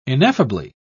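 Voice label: "ineffably", British English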